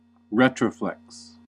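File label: "retroflex", US English; pronunciations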